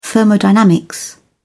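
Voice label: "thermodynamics", British English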